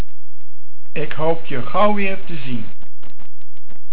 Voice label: "Gauw", Dutch

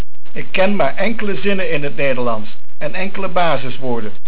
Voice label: "Ken", Dutch

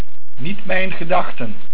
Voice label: "Gedachten", Dutch

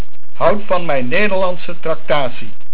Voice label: "Traktatie", Dutch

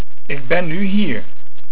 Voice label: Hier, Dutch

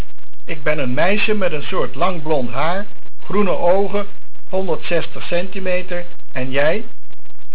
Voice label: "Meisje", Dutch

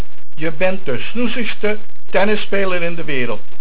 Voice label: "Tennis", Dutch

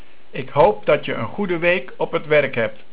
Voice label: "Werk" Dutch

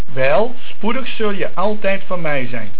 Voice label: "Wel", Dutch

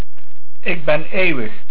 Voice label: Eeuwig, Dutch

